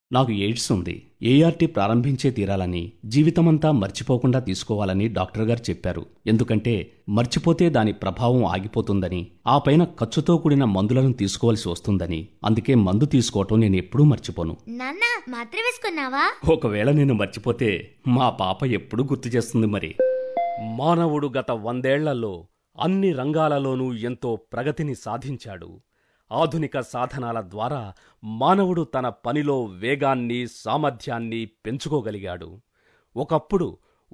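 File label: telugu male voice composite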